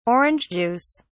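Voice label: "orange juice", English, British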